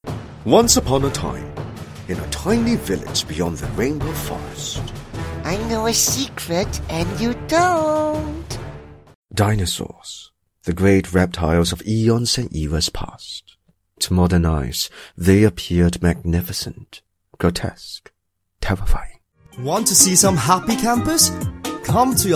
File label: English, Chinese-Mandarin, Male, Home Studio; 20s-40s; Home Studio Read